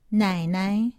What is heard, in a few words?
"nainai", Chinese; Survival Chinese